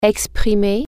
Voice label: express, french